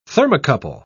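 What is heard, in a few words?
"thermocouple", British English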